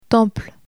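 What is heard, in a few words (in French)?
"temple", French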